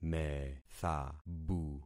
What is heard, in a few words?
"methabu", concat syllables; Affixing experiment